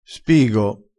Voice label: "spigo", vocabolario dialettale

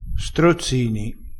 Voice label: "strozzini", crespinese

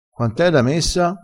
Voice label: "messa", crespinese

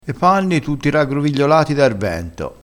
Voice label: "raggrov", dialettale, crespinese

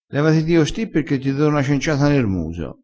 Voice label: "cenciata", crespinese

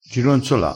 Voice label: "gironzola", crespinese

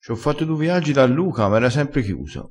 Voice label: "fa un viaggio", vocabolario dialettale

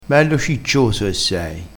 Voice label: "ciccioso", crespinese

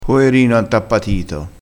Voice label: "poerino", crespinese

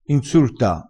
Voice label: "insurta", crespinese

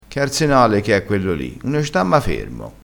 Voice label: "arsenale", crespinese

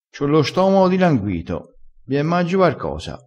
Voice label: "dilangui", crespinese

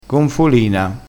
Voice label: "gonfolina", crespinese

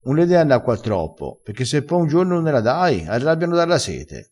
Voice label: "arrabbia dalla sete", crespinese

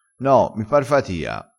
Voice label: "pare fatia", crespinese

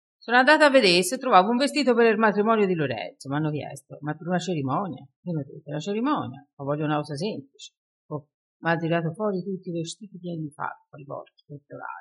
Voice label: "farpali", crespinese

